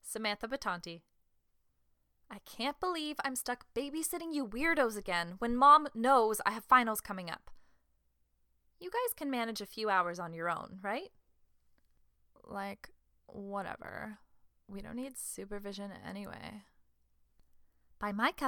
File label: Animation